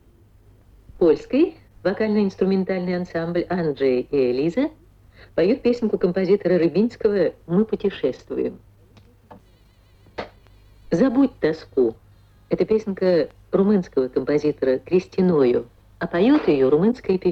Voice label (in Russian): golosa diktora vsesoyuznogo radio; fragmentyi golosa diktora vsesoyuznogo radio gretyi platonovoy